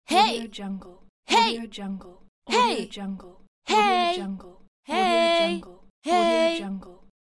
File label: hey teen girl voice